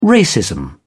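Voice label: "racism", British English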